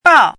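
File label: "bao", Mandarin Chinese, fourth tone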